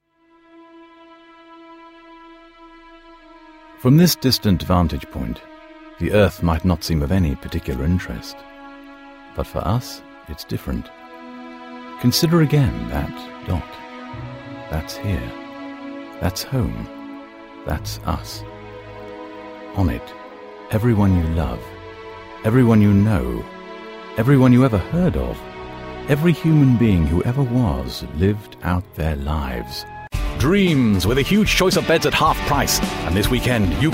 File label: voice over samples